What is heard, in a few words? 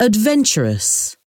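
"adventurous", English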